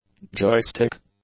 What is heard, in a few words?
"joystick", English; nouns